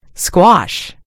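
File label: "squash", British English; gcompris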